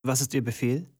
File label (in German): german voice; wyrmsun